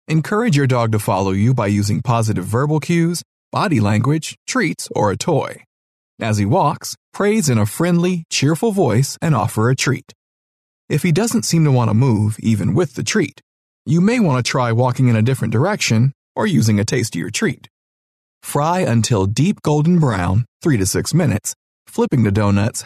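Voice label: English US, male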